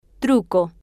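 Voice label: "truco", Spanish, female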